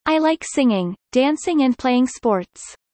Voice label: fast; studying abroad